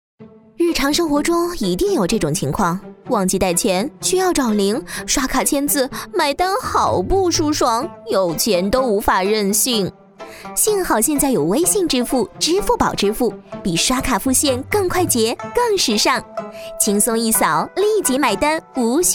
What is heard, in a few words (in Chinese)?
宣传片 病毒 快捷支付; 微拓拓